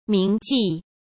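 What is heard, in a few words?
"铭记", Mandarin Chinese; hsk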